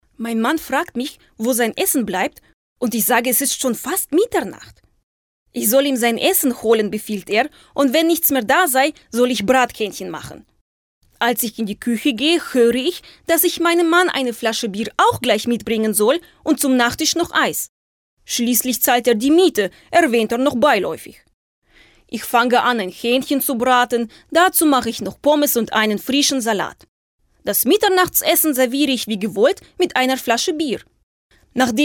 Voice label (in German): russischer Akzent